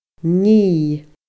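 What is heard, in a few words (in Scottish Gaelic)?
"ni fada", Scottish Gaelic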